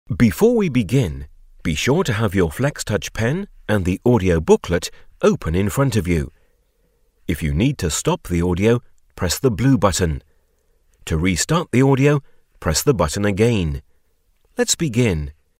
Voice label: british authoritative straight

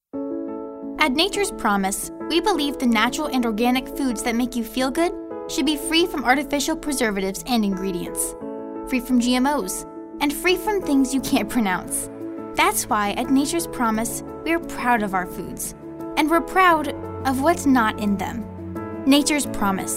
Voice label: narrative

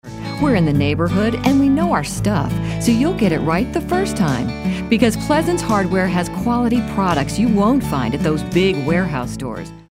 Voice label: folksy homespun

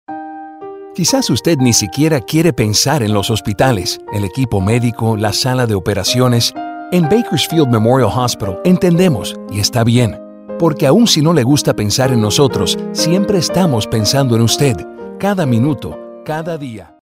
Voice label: thoughtful caring concerned

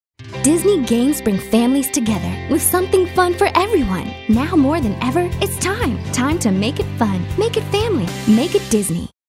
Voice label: young

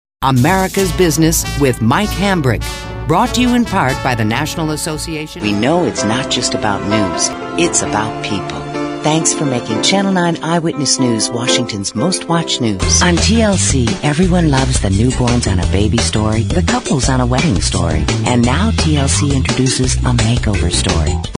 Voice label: Promos

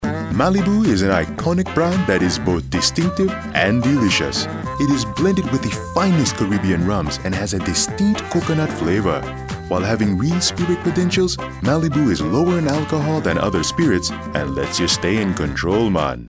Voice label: jamaican

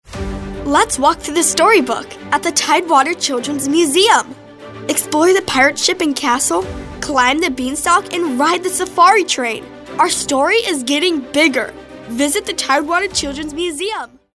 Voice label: kid; Tidewater Childrens Museum

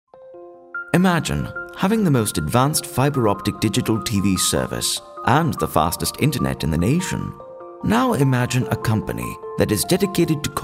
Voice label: british

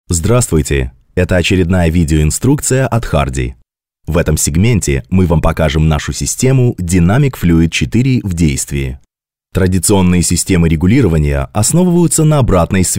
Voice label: Russian

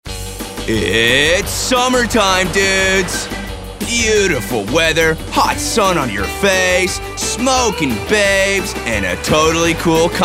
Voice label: Surfer Dude

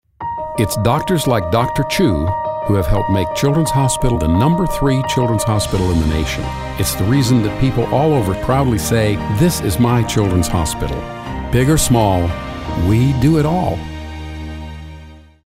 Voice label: Caring

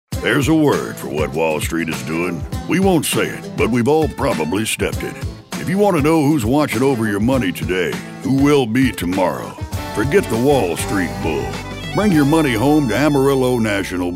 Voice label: attitude

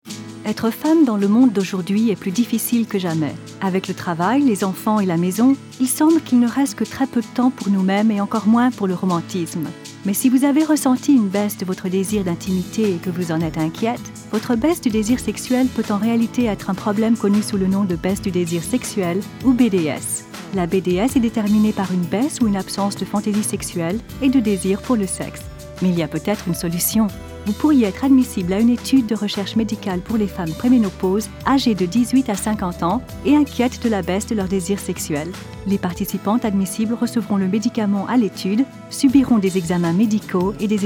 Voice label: French Medical

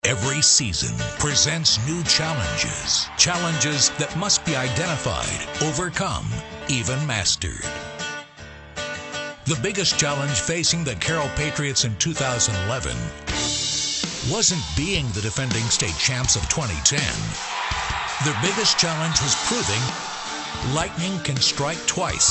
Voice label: storyteller narrative dramatic

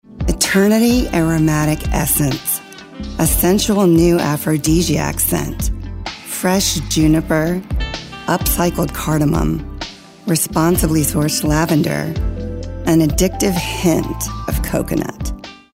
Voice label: Romantic

